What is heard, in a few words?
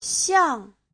"xiang4", Chinese; 向; Giáo trình chuẩn HSK3